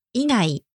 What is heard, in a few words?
"igai", Japanese; excepting